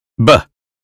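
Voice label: American phonetics sound book; 英语音标发音（剑桥版）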